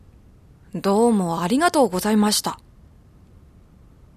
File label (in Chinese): 正太